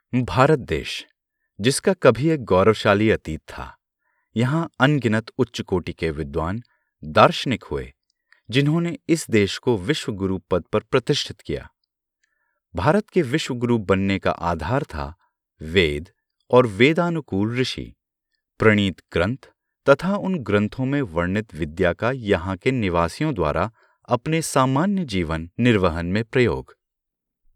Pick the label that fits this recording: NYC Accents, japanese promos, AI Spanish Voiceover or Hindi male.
Hindi male